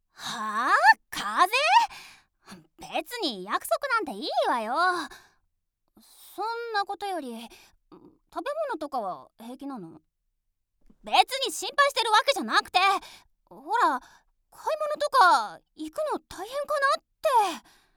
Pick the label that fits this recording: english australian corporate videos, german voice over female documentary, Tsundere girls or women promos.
Tsundere girls